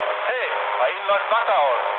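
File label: German damaged water mood low, speech; War Thunder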